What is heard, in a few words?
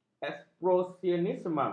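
"ekspresionisme", Malay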